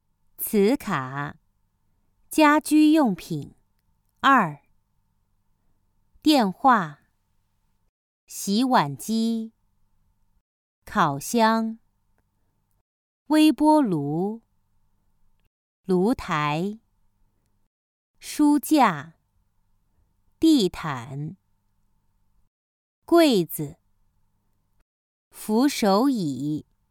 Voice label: Vocabulary Flashcards Household Item; Chinese Treasure Chest Volume 3